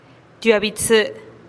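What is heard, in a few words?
"tiuaa bi tsï", Teotitlán del Valle Zapotec; cincuenta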